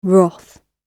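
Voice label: "wrath", British English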